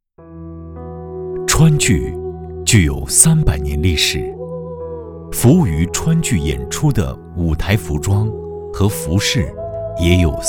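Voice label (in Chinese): 男国大音-非物质遗产项目旁白解说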